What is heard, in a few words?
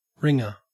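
"wringer", Australian English; pronunciations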